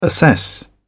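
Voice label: "assess", British English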